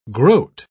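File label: "groat", British English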